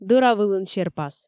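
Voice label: "дӧра вылын серпас", Komi, female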